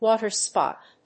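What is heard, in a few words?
"water spot", English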